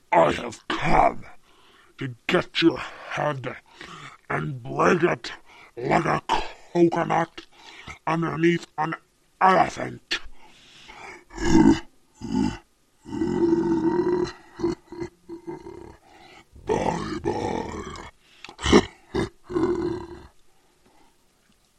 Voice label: crush head like coconut, deep voice guy

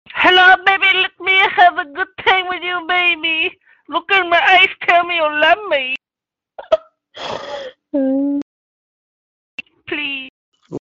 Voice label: asian voice

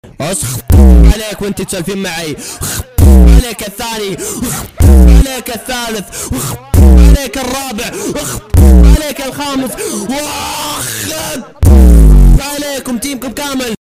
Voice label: raging; ninja

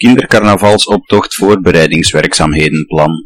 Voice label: dutch long word

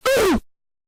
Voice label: ultra bass boosted oof

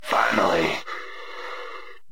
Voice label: ghost finally sound effect; starcraft

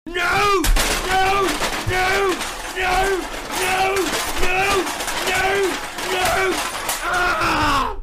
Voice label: nooooooo noooooooo nooooooo ghost

